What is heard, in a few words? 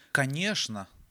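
"konechno", Russian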